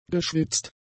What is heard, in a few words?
"geschwitzt", German; adjectives